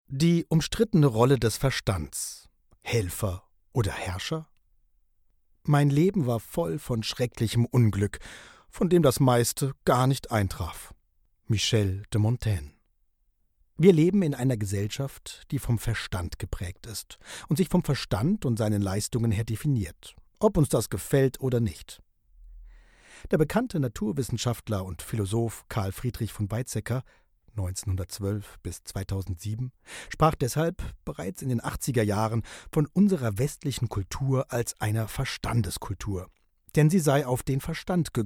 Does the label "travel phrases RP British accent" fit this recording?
No